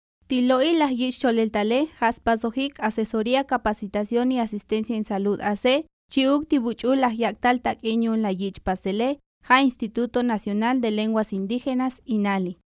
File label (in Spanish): Créditos Tsotsil